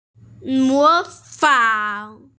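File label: "mo fang", Mandarin Chinese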